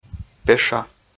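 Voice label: "пеша", Komi, male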